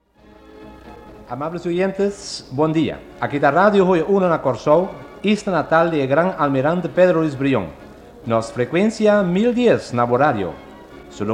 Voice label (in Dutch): Koetsier station call zonder tijd; Antillen Aruba